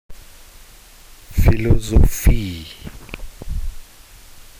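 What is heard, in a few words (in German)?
"Philosophie", German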